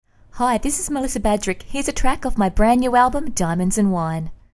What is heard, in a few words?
Radio ID